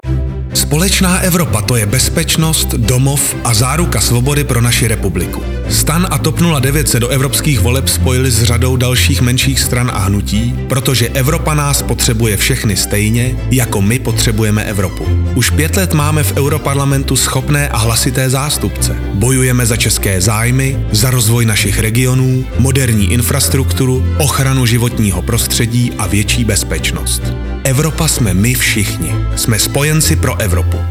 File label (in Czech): rozhlasovy spot; spolecna evropa; volby do ep 2019